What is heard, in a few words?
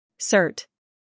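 "scandal", American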